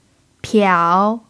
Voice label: "piao3", Chinese